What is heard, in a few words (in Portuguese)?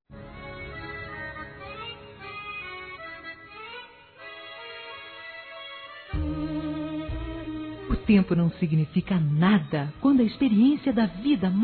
Aniversário Avô Feminino